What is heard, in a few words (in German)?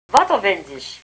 "watterwendisch", erzgebirgisch